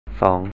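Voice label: "sɔ̌:ŋ" 'two', Thai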